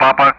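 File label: Speech Sound Russian Common Player Alphabet; DCS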